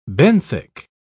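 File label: "benthic", English, British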